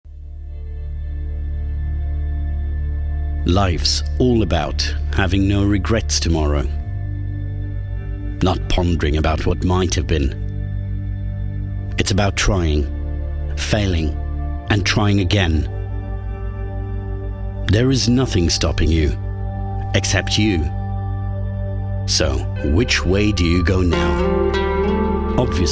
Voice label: promo uk